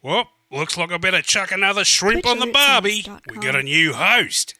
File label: Aussie Host